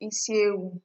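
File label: "isiewu", Nigerian Pidgin, female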